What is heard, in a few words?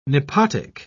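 "nepotic", British English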